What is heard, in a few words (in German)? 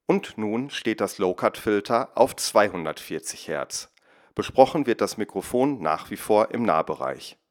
zoom m2 mictrak sprache mono low cut 240 hz